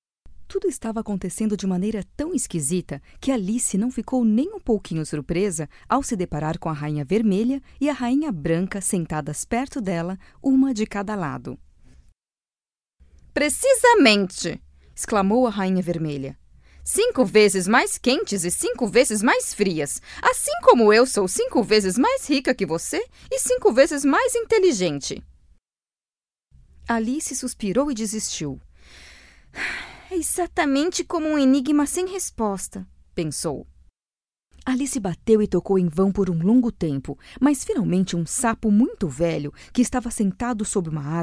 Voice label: Leitura; Alice; Fundação Dorina

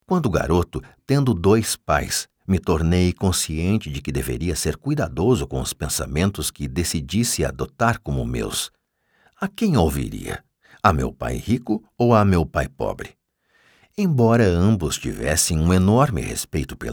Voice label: audiolivro videoaula

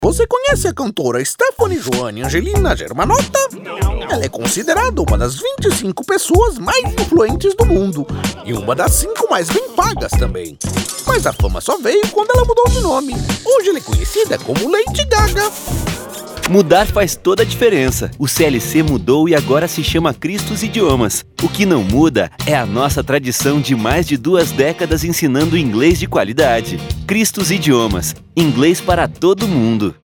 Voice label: Caricato; ChristusIdiomas

